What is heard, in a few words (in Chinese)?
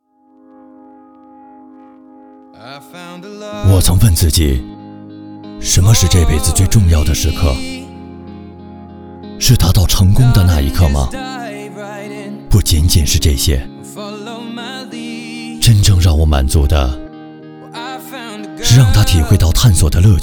男, 品质旁白电视广告; JEEP大指挥官